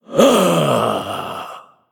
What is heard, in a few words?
Male Ethereal Death